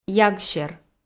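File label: "ягсер", Komi, female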